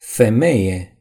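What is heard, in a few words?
"woman", Romanian; gcompris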